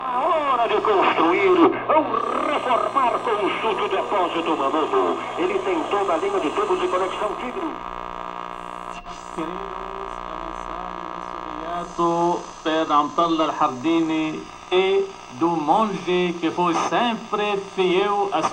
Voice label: Radio, Fieldrecordings Brasilien